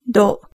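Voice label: "do", Japanese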